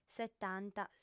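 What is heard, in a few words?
"setanta", lingua sarda, female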